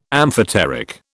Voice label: "amphoteric", British